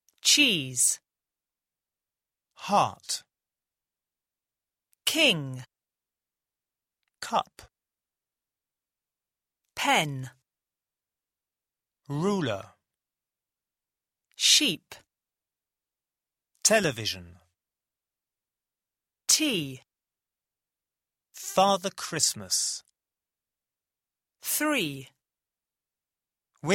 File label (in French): consonnes en anglais